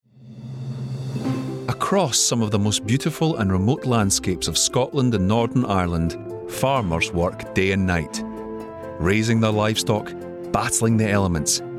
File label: Documentary Extract Farming